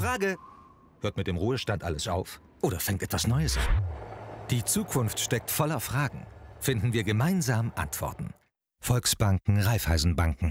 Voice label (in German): TV Spot; Volksbanken Raiffeisenbanken